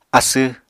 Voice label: "asw", Apatani

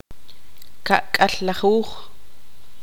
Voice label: tzutujil; cincuenta

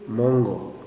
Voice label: "seed", kuman

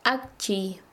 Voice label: Chanka, quechua; alumbrar

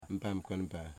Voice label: "good day", Wamut

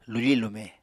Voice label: "lufi-lume", Apatani

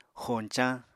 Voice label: "xoñcañ", Apatani; Material Culture

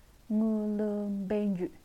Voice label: "yulong mountain", naxi

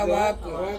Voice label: "awaku", Ansus; tobacco

